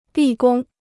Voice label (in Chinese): "立功", Chinese